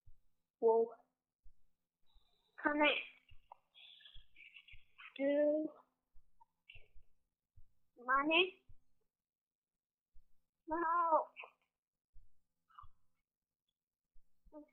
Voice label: Monkeys Voicemail